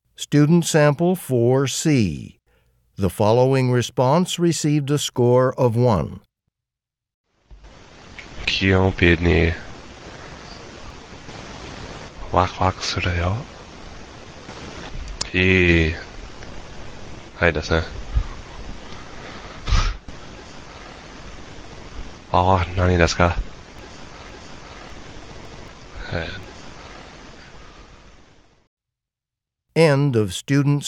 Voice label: japanese conversation